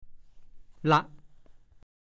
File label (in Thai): "ล่ะ", ภาษาโคราช